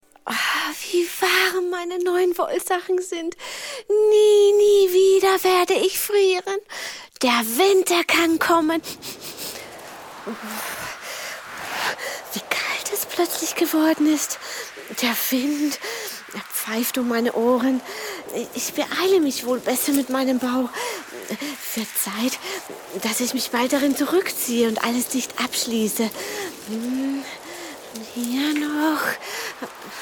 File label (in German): Hoerspielszene